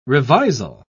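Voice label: "revisal", British English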